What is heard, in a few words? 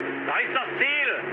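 German near waypoint mood low, speech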